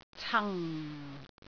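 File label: "cang4", Cantonese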